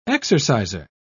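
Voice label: "exerciser", British English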